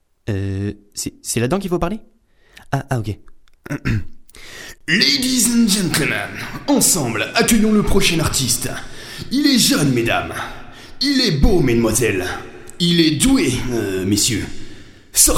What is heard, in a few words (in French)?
Teaser spectacle Voix accrocheuse; La dernière voix sortie du micro…